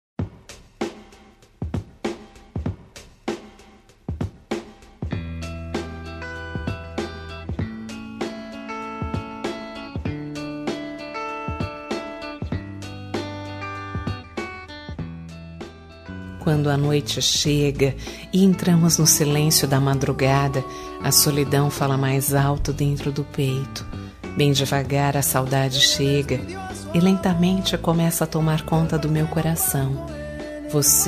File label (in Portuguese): saudades fem, Telemensagem de Saudades, Voz Feminina